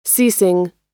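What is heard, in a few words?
"ceasing", American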